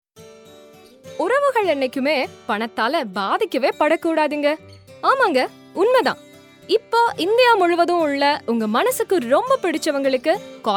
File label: Advert Tamil